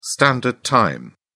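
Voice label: "standard time", British English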